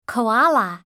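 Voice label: "koala", English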